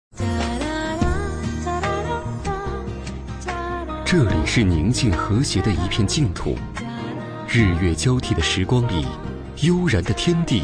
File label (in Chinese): 男6号专题 大气舒缓